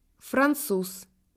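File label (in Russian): "francais", Russian; phonetique et graphie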